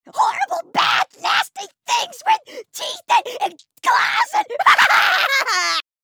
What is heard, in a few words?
Outtake Grunts Freaked by flood